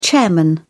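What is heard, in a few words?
"chairman", British English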